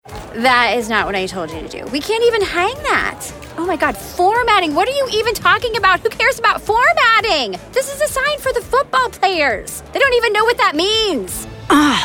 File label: Animation